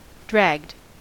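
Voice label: "dragged", US English; pronunciations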